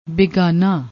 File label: "bigaana", Punjabi